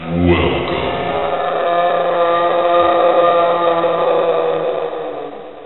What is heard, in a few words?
evil welcome, spooky sounds